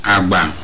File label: Igbo fame